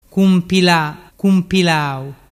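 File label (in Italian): "cumpilalau", Vernazzese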